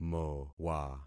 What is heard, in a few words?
"mowa", concat syllables; Affixing experiment